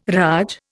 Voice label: "raj", Indian